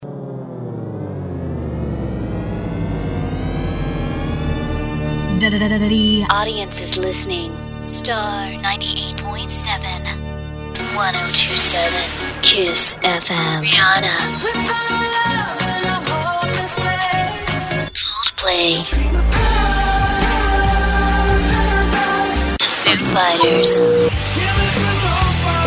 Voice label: CHR TOP 40 RADIO IMAGING